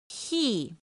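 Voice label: "pì", Mandarin Chinese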